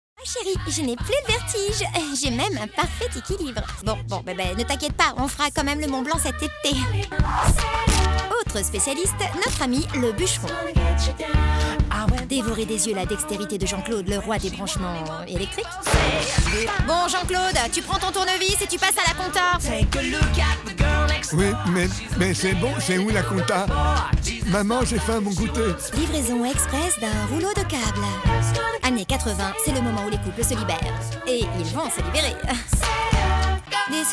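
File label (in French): cartoon, voix off féminine; Camera surprise Gulli; Complice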